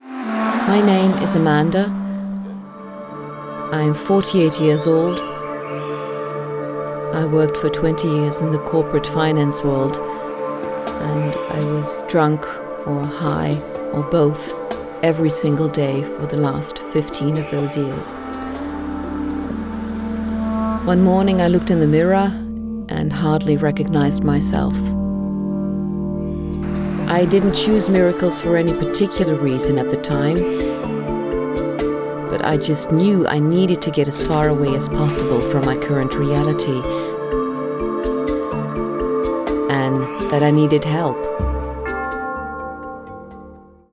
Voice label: english documentaries